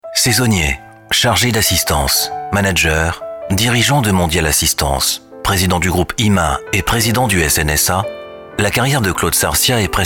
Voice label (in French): Podcast - Medley Narration Voix pour Podcast